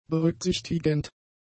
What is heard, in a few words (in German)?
"berücksichtigend", German; adjectives